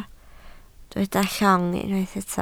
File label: "llong", Welsh